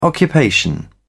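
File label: "occupation", British English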